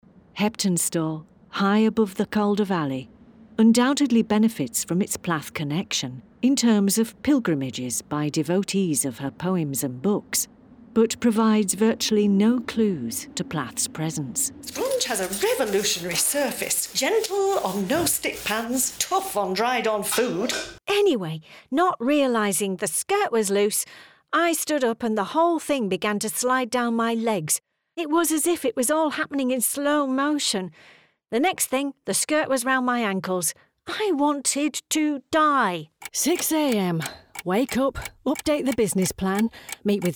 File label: Northern